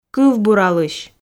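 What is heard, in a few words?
"кывбуралысь", Komi, female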